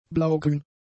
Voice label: "blaugrün", German; adjectives

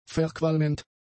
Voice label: "verqualmend", german; adjectives

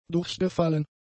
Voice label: "durchgefallen", German; adjectives

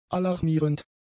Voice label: "alarmierend", German; adjectives

